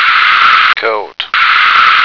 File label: "coat", American